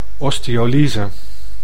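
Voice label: "osteolyse", Dutch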